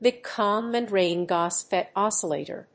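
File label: "MIC common drain GaAs FET oscillator", English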